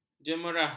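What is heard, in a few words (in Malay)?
"jemerah", Malay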